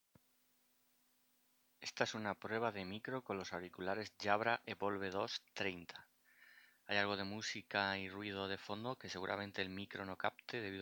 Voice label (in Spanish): Grabacion JABRA EVOLVE2 30